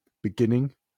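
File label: "in the beginning", British English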